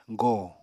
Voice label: "gòo", Apatani

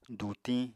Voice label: "dutiñ", Apatani; Household Items

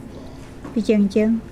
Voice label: urine, Mokilese

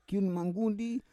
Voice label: "kiun manggundi", Roon

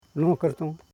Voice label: "no mon kar ton", Koro

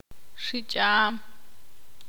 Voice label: ixil; volar v

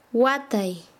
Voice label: Chanka, quechua; amarrar

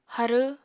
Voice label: "harw", apatani

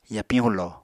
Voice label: "yapiñ hulo", Apatani

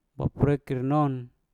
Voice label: "baprekkir non", Mpur; anak kasuari

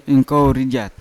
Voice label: "inkovo rjat", Yawa; youger mother; unat

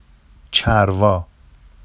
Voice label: “ʧarvɑ”, Vafsi; n sheep; Ovis aries; چَروا

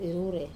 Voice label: "rure", to gather, Wamesa

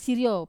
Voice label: "sirio", to carry over the shoulder, Wamesa

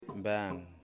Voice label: "One", Yimas

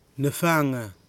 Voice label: "nɨfaga", Nafe; [nɨfaŋa] bow

